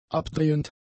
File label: "abdrehend", German; adjectives